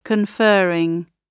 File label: conferring, gb